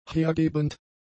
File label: "hergebend", German; adjectives